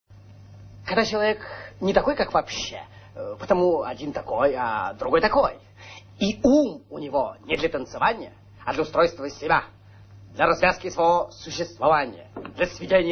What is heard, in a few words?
monolog